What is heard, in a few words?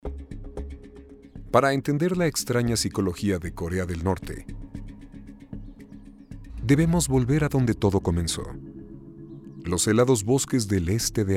Narration; Natgeo